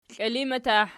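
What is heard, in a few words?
"kiilimatah" nominative, Archi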